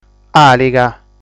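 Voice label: "Àliga", Sardinian word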